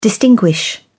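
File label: "distinguish", British English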